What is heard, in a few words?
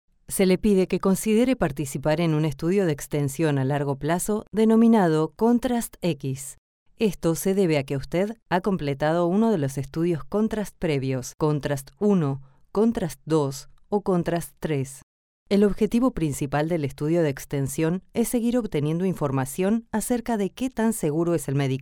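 Spanish Latin America female narrative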